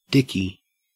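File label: "dicky", Australian English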